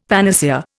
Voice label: "panacea", Indian; Dictionary